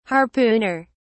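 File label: "harpooner", British English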